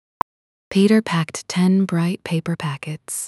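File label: speech female slow low, paper packets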